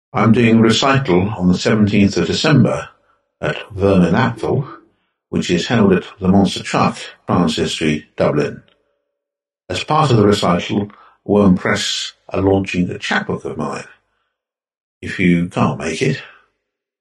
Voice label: recital